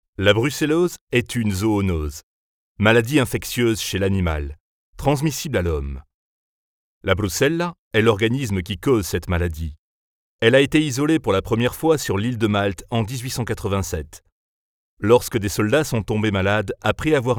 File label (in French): Voix grave explicative; Brucellose